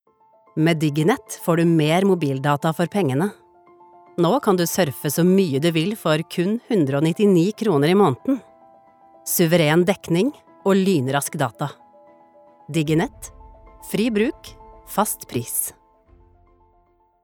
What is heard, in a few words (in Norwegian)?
reklame, Norwegian; female